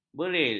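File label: "beril", Malay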